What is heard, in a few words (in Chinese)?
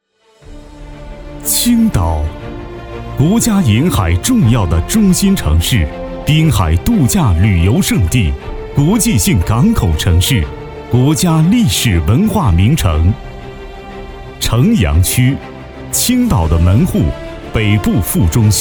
男 专题 宣传片; 青岛嘉泽宣传片